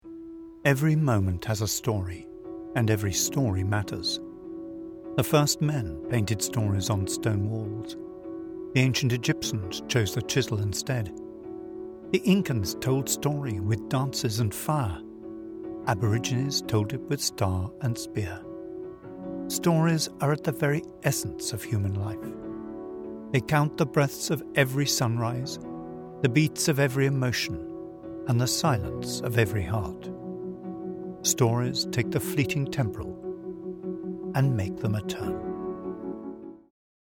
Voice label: documentary music